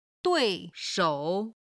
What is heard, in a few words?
"duishou", Chinese